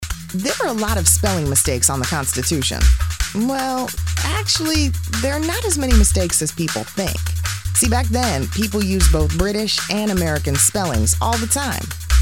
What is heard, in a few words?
kids education